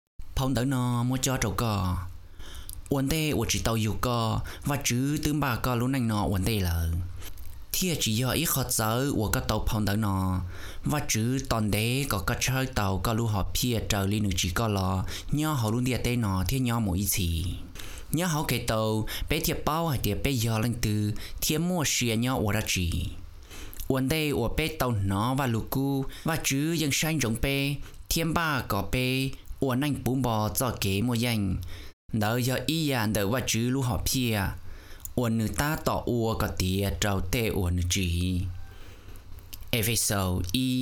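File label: Hmong, Bible Narration, voice demos; GoLocalise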